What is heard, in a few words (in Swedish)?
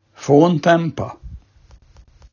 "fåntämpâ", daladialekt; Uttal; gagnsmal